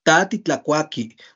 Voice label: tú vendrás a comer ta titlakuaki you will come to eat, Náhuatl